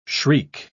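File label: "shriek", English word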